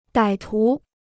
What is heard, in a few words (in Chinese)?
"歹徒", Chinese